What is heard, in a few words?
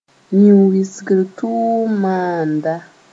"yĩn-wɩsgr tʋʋm maanda", Mooré